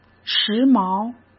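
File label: "时髦", Mandarin Chinese; hsk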